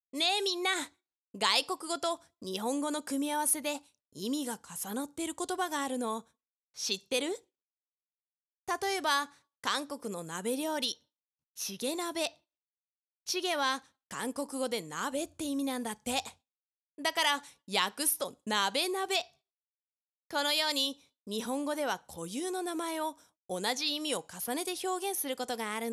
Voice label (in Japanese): ナレーション